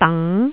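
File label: "dang2", Cantonese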